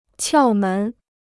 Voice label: "窍门", Chinese

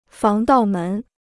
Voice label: "防盗门", Chinese

